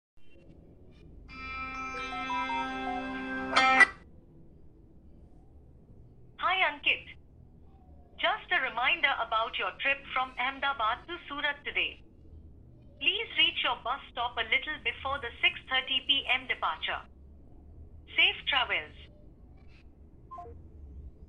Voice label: Bus Reminder, voice alerts